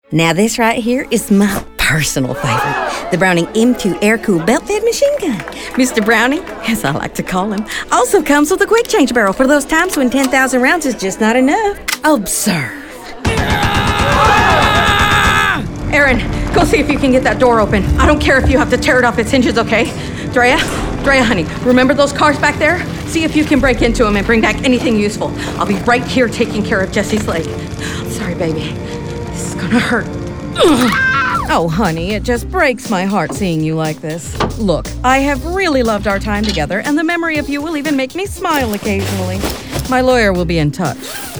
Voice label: VO Character